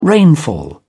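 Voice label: "rainfall", British English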